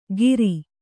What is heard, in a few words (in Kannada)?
"ಗಿರಿ", Kannada